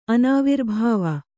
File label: "ಅನಾವಿರ್ಭಾವ", Kannada